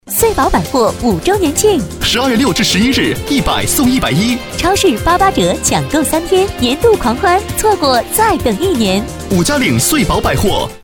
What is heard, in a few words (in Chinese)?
对播 男女对播 广告 百货, 女国; 合岁宝